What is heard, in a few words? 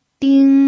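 "ding", Mandarin Chinese, first tone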